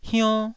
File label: "hionn1", taiwanese